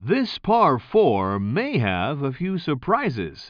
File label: commentator